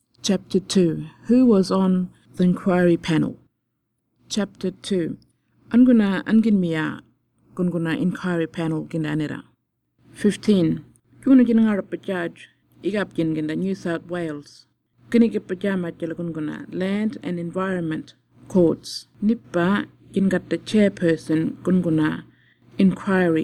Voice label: burarra; fracking inquiry